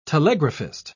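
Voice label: "telegraphist", British English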